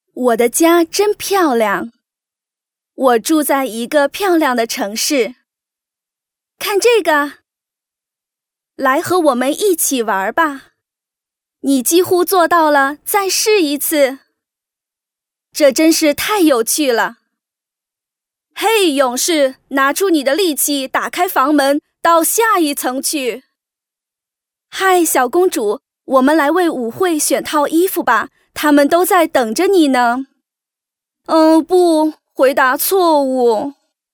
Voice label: Toys Chinese Mandarin Female